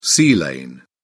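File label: "sea lane", English, British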